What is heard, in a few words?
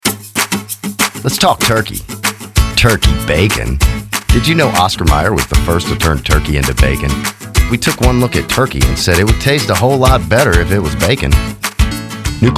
commercial